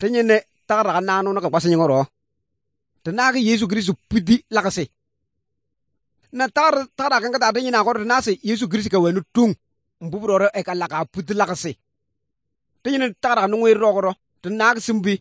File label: good news, kabiye bible